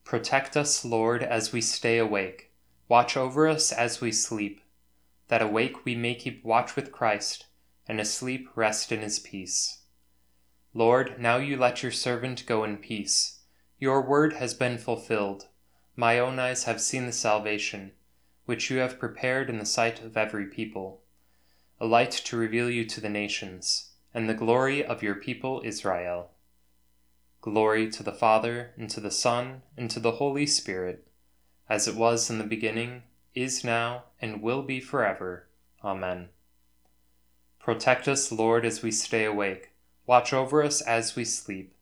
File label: antiphon gospel canticle spoken